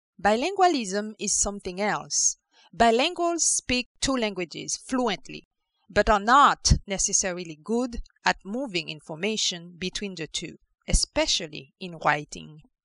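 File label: Creole French Haiti, female, voice over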